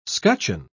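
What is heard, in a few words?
"scutcheon", English, British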